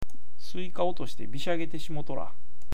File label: "bisyageru", awaji ben